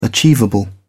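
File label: "achievable", British English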